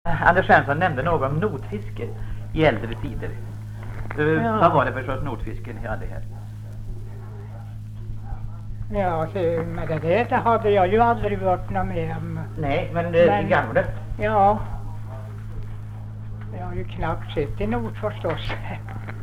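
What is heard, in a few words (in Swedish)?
Visnums-Kil Värmland, dialekter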